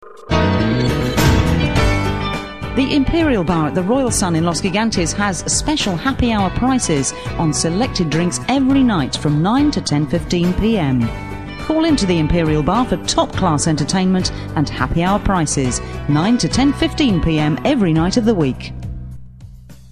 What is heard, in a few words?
ads; imperial bar